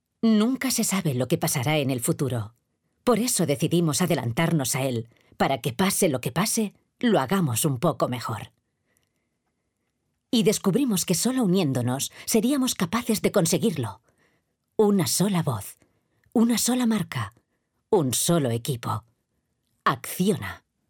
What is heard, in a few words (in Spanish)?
TONO INTERPRETACIÓN